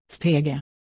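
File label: "steighe", Proto-Indo-European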